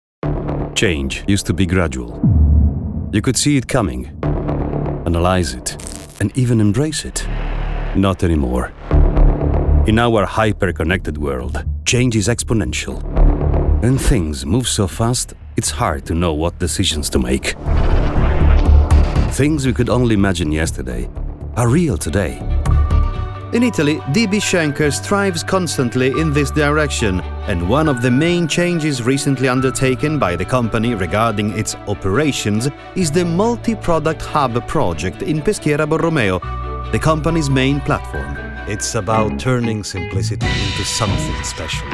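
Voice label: English Corporate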